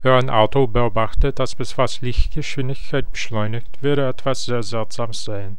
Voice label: german dub